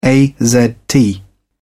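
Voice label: "azt", British English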